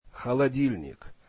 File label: russian refrigerator